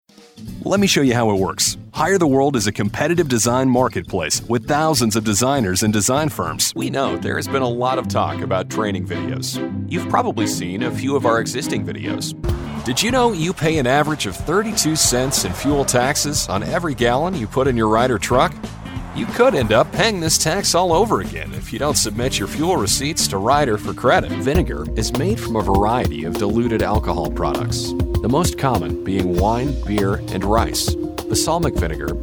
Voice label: Corporate